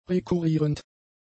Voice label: "rekurrierend", German; adjectives

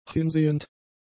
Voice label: "hinsehend", German; adjectives

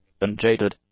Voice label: "unjaded", English; adjectives

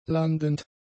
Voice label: "landend", German; adjectives

